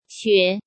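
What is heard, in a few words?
"Xué", Chinese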